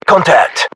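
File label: threat infantry generic, battlechatter British; english; uk; localized english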